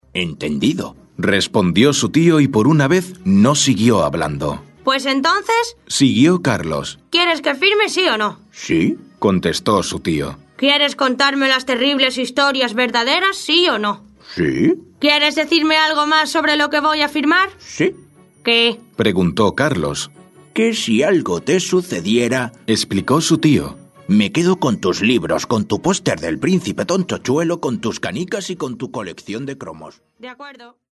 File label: Childrens Story